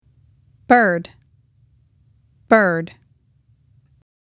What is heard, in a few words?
bird english; insects-animals